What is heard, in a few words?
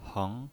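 "heng", second tone, pinyin voice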